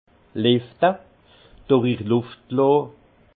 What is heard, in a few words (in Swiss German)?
"lefte", alsace; vin